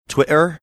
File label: twitter glottal stop